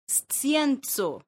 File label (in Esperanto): "scienco", esperanto; leciono1